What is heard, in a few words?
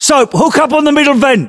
voiceovers, US; localized english